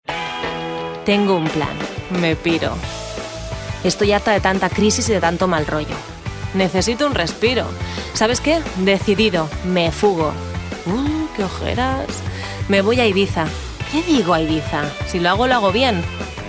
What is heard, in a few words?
female spanish clothes brand comercial